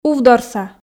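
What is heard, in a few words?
"увдорса", Komi, female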